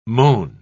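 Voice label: "mown", British English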